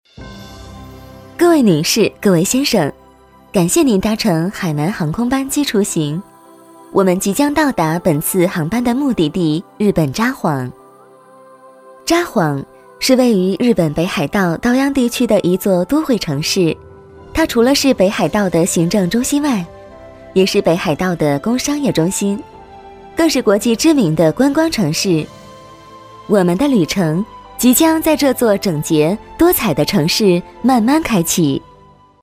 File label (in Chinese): 女 专题 景点介绍, 国语女声配音; 海南航空